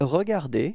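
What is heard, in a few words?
"regarder", French; frans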